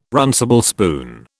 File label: "runcible spoon", British